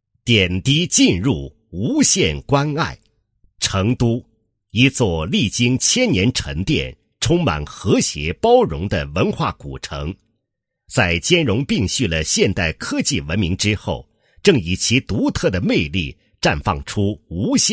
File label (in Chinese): 男 企业 简介; 某药业有限公司简介